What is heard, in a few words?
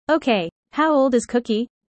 user fast; Animal friends